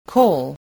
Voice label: "coal", voice